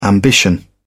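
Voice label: "ambition", English, British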